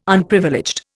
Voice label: "Unprivileged", Indian

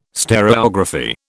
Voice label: "stereography", British

